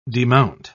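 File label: "demount", British English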